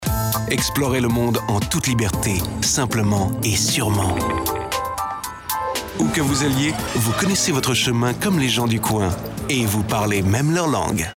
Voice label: fr commercial

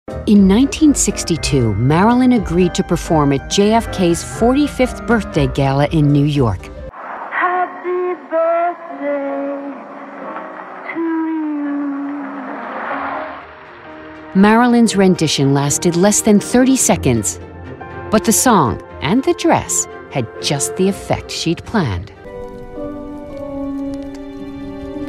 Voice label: us narration broadcast

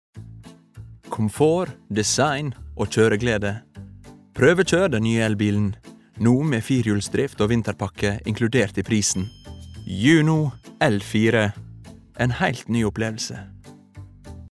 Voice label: no reklame, Norwegian, male

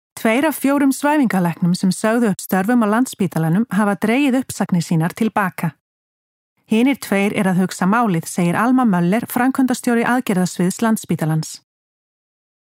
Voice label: is corporate, female, Icelandic

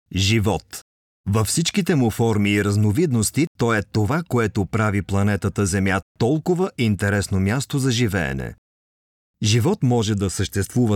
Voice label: bulgarian informative

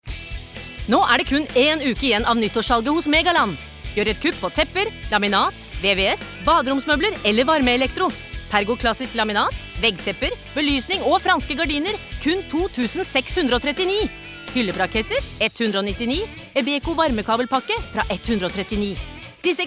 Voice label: no hard sell

